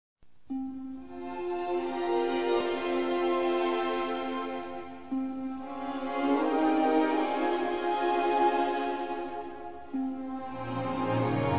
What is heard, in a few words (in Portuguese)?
VAMOS CONVERSAR, fem